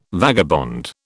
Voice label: "vagabond", British